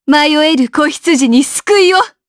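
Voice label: voice, Japanese; kingsraid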